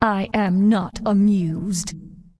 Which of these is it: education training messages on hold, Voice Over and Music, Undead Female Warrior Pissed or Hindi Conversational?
Undead Female Warrior Pissed